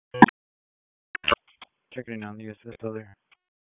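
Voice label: Police Southwest District Dispatch; Raleigh; p25; wake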